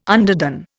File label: "underdone", Indian